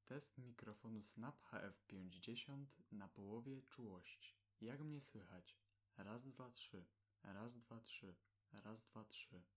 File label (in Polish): 50 czułości odszumione, Mikrofon Snab Microtone HF-50; 50% mocy odszumione